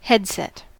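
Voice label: "headset", US English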